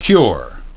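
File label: "cure", English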